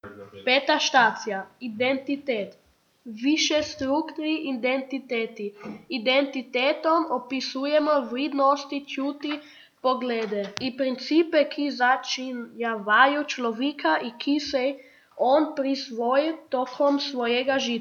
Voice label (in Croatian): hrvatski